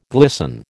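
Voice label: "glisten", American English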